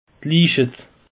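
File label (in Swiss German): "leichet", Alsatian; peche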